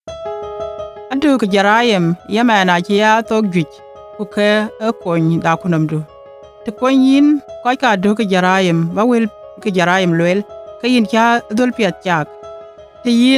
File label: Dinka; Crime Stoppers